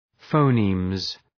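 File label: "phonemes", English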